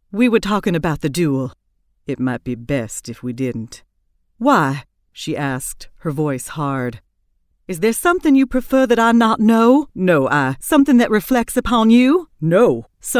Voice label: Romance Dialogue Southern; Southern Bodice Ripper